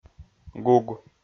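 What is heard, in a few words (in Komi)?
"гуг", Komi, male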